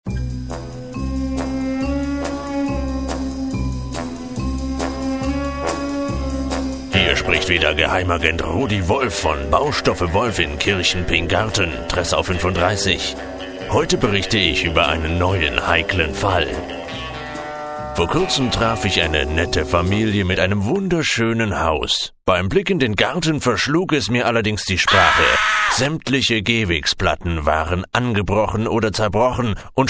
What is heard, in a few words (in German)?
Werbespots